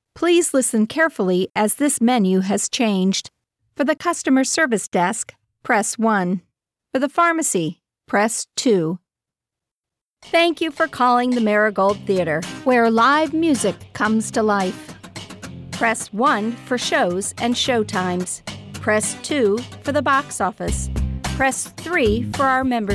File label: telephony